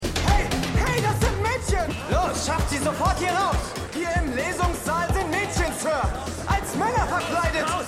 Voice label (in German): Student, Stimmproben